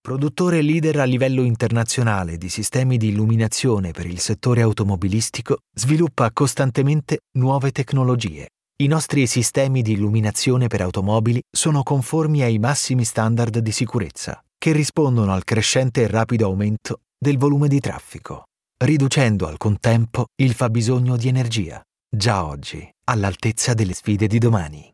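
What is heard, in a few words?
italian voice corporate, male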